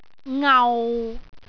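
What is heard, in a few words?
"ngau6", Cantonese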